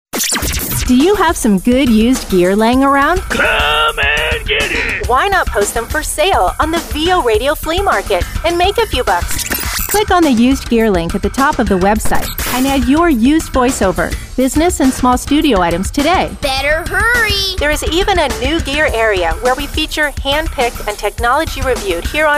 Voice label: promo fleamarket store